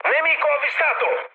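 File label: Italian enemy detected mood high, speech; War Thunder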